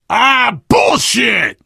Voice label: swear, player survivor voice biker